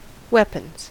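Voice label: "weapons", American English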